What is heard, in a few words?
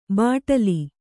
"ಬಾಟಲಿ", Kannada